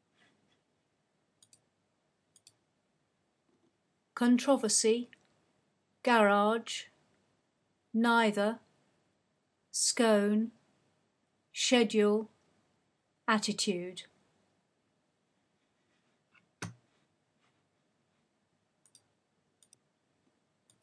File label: Ruislip Middlesex England female